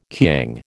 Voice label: "kiang", American

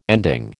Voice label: "ending", American

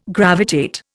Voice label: "gravitate", Indian

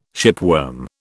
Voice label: "shipworm", British

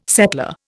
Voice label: "settler", Indian

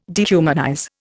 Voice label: "dehumanize", Indian

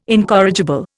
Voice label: "incorrigible", Indian

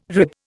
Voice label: "rib", Indian

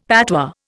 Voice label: "patois", Indian; Dictionary

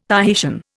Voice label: "Tahitian", Indian

